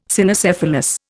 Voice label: "Cynocephalus", Indian